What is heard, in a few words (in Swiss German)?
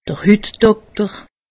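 "hutdokter", Alsatian; medecine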